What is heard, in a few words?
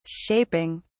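"shaping", British English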